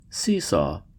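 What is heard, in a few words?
"seesaw", American English; pronunciations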